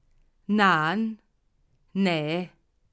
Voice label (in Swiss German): "naan", alsace